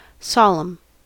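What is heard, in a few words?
"solemn", English, US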